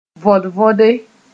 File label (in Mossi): "võd-võde", moore